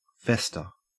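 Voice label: "fester", Australian English; pronunciations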